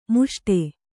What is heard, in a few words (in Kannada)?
"ಮಾಸಟೆ", Kannada